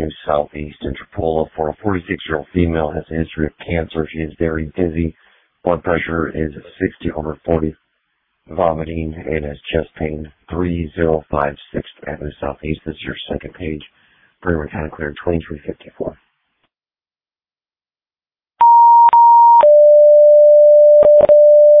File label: Ambulance, dispatch